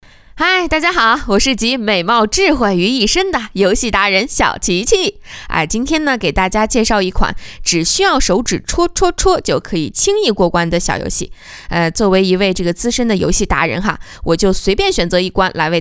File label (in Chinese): 游戏解说; 动画 游戏